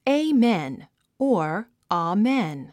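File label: "amen", English word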